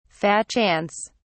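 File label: "fat chance", British English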